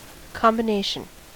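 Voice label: "combination", American English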